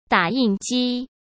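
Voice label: "打印机", Mandarin Chinese; hsk; chinese flashcards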